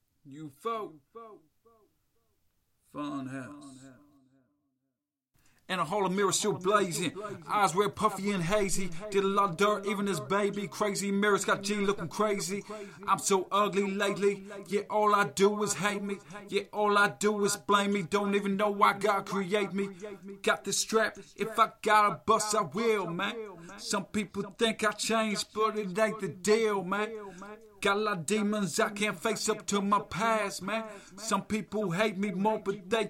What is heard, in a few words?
Acapellas, 130BPM Key Am